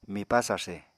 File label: "mipá sarse", Apatani